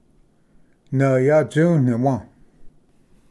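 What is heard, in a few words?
"nvya tsuniwi", Cherokee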